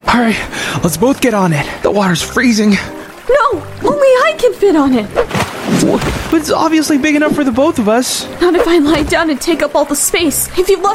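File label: Asian English Promo Character Voice; LEGO Titanic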